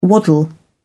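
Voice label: "waddle", British English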